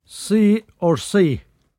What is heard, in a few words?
"sae or say", Cromarty; [SAYee or say]; a tub; Word